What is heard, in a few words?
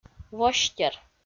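"востер", Komi, female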